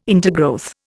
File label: "intergrowth", Indian